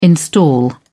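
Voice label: "install", British English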